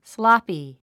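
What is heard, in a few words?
"sloppy", English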